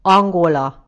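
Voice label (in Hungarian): "angola", Hungarian; gcompris; geography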